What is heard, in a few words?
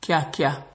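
"kiakia", Nigerian Pidgin, male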